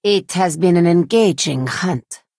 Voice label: Khajiit female good game